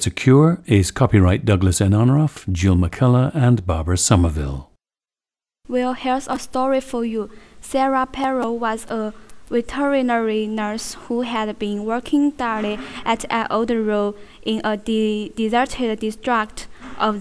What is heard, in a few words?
sichuan